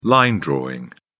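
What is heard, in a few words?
"line drawing", British English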